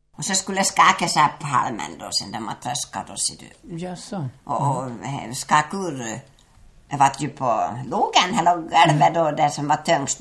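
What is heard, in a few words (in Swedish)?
Ål Dalarna, dialekter; Folkminnen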